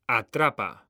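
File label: "ATRAPA", Spanish, male; mekanta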